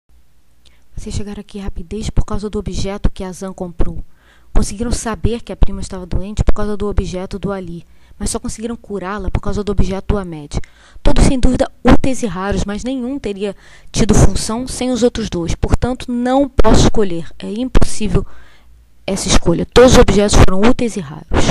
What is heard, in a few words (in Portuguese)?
pai Voz Impossivel; Olívia - O Casamento da Princesa Nuriar